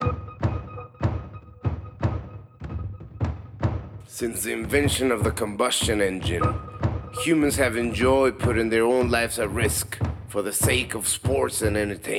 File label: Intro Vox English; quantum runners windows gamerip 2021